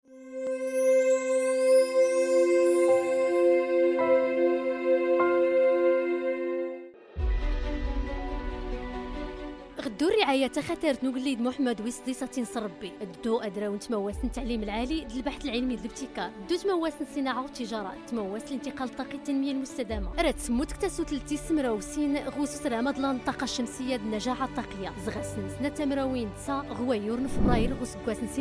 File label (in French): Voix Off; Solaire Expo Maroc 2024